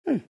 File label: happy; dota; tinker